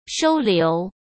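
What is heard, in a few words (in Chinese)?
"收留", Mandarin Chinese; hsk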